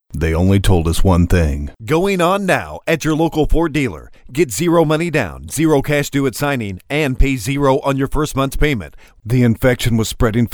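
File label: Presentation male, English American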